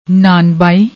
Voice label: "naan bai", Punjabi; ਨਾਨਬਾਈ

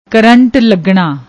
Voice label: "krant lagnna", Punjabi; ਕਰੰਟ ਲੱਗਣਾ

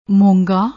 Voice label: "mongaa", Punjabi

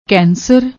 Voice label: "cancer", Punjabi; ਕੈਂਸਰ

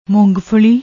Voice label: "moongfalli", Punjabi

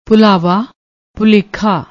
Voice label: "bhullava", Punjabi; ਭੁਲੇਖਾ

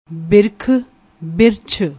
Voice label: "birakh", Punjabi

